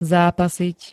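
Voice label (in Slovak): "zápasiť", Slovak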